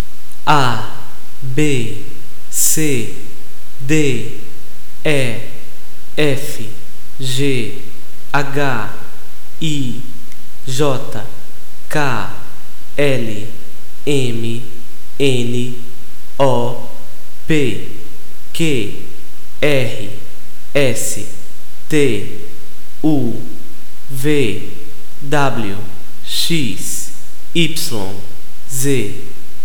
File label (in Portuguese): Alfabeto portugues brasileiro